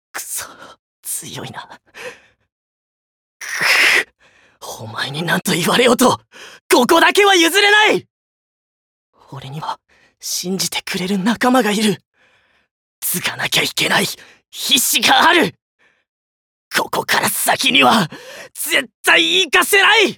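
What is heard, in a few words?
voice sample, hot-blooded boy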